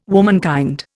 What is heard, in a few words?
"womankind", Indian